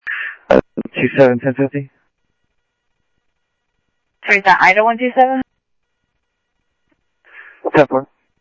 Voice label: Sheriff, scanner audio

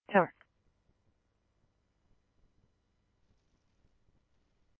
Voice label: scanner, Scottsdale Police

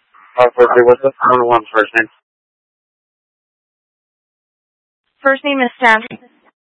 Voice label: Mutual Aid-Intersystem Interop B, scanner

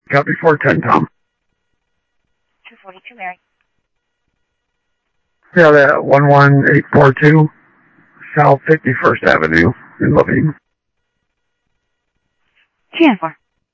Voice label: Central Sheriff Central, scanner audio trunk; MCSO Central Sheriff-Central; ThompsonPeakScot

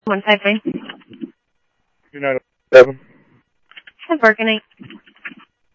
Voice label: Tempe Police, scanner

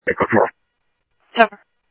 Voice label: Scottsdale Police, scanner; SCTSDLE PD - PD2; ThompsonPeakHigh

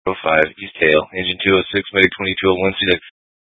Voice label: FD Dpts VHF 156.240 Patch

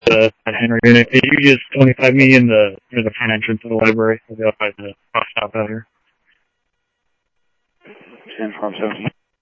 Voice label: Tempe Police, scanner; SimulcastF-Tempe; TEMPE PD A04

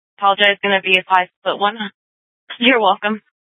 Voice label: Sheriff, scanner P25

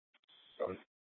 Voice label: Dispatch, scanner

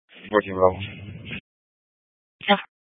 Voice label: Phx PD Dispatch, scanner; C- Chandler

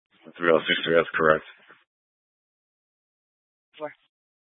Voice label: Scottsdale Police, Simulcast

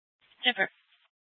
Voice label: Dispatch; Scottsdale PD; Nth Dispatch

